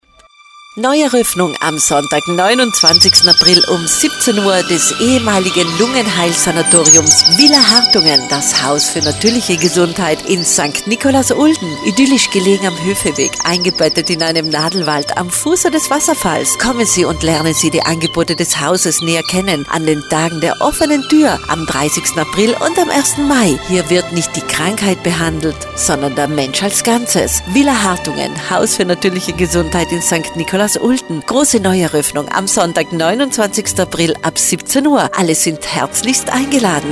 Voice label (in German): Werbespot; villa hartungen; eröffnung 2018